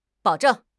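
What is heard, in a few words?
"bao3 zheng4", Mandarin Chinese; HSK4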